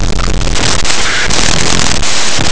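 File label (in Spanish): "abstinencia", Spanish; laboratori fonetica; aproximants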